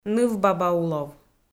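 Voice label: "нывбабаулов", Komi, female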